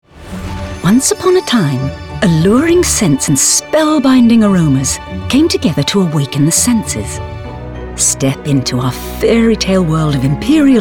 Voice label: Commercial